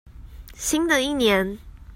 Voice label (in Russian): Тайваньский; 新的一年; commonvoice